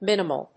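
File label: "minimal", English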